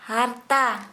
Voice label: Santali Skin

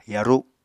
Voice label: "yaru’", Apatani

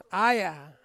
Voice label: "aya", Ansus; bird

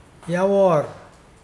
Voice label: how much, Mokilese

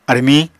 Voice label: "armiñ", apatani

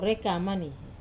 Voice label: "rekamani" wink, Wamesa

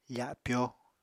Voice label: "fapyo", Apatani

